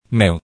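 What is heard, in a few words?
"meu", Hakka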